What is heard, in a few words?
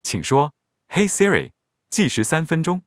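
Chinese, China; iOS 27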